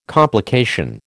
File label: "complication", American